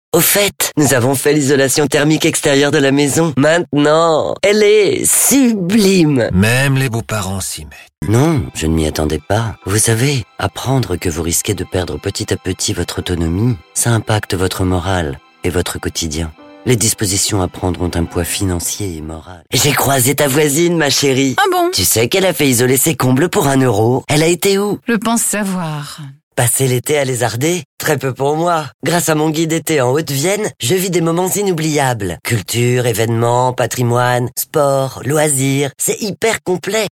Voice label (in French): MEDLEY SPOTS PUB